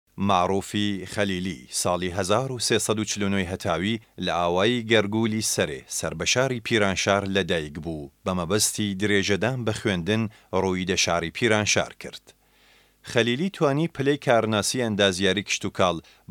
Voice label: Sorani Commercial

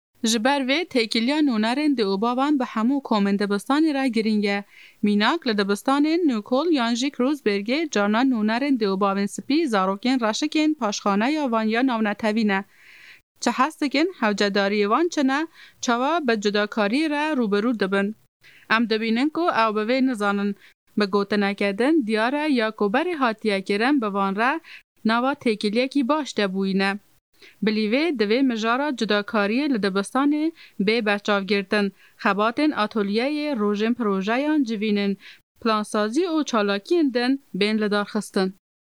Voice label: Kurdish Kurmanji, Female, Adult

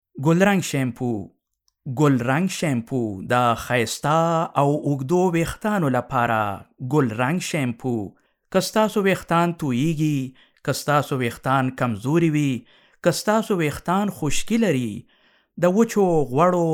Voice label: Pashto Commercial

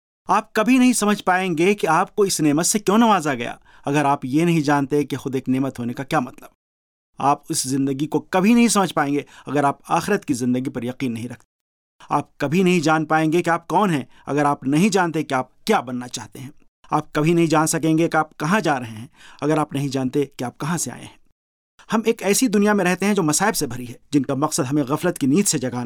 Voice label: Urdu, Male, Adult

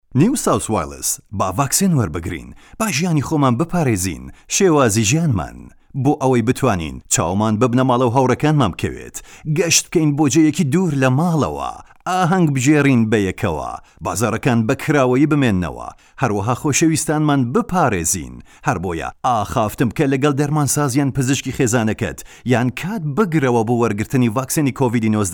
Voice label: Sorani Commercial

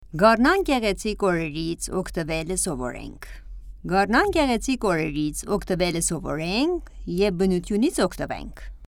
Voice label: Armenian Narration, Female Young

